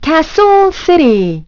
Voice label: castle city, announce voice; Nintendo; July 2020 Gigaleak